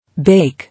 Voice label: "Bake", English